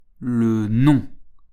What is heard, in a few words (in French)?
"le nom", French